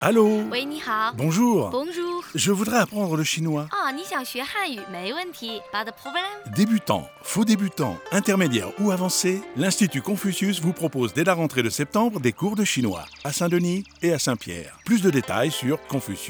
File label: Spot Radio; CONFUCIUS; INSTITUT CONFUCIUS